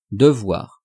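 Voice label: "devoir", French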